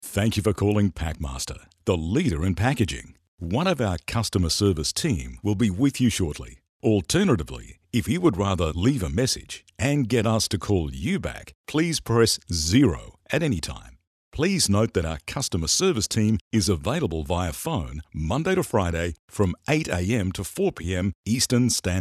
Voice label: On Hold

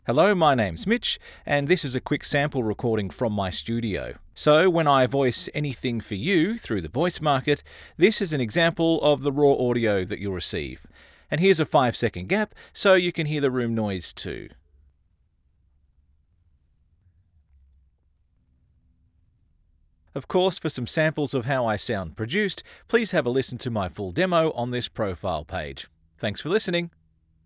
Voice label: Studio Sound Check

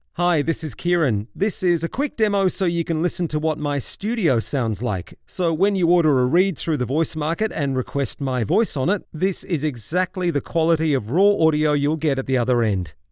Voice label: Studio quality

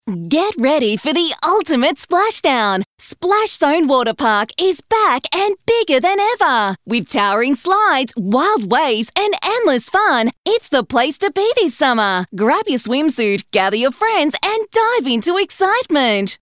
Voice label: Excited

